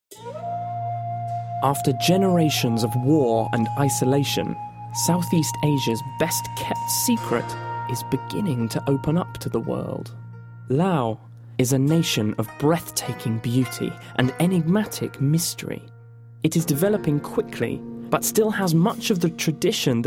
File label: TV Trail documentary